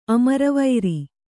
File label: "ಅಮರವೈರಿ", kannada